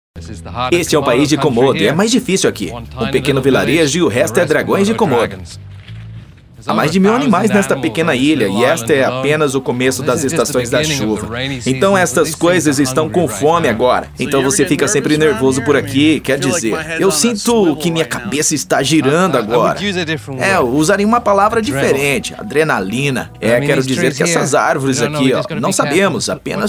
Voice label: voice over